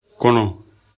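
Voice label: "kono", Bambara; corps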